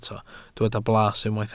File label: "blas", welsh